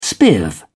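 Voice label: "spiv", British English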